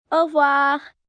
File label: French Goodbye, Greetings, 1 girl, 8 yrs., French; Talking Sound Effects